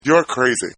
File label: Man Says Your Crazy; Talking Sound Effects